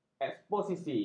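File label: "eksposisi", Malay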